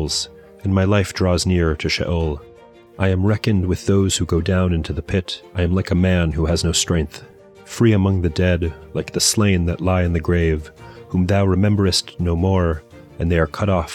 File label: poetry and prayer psalm english; Meir Soloveichik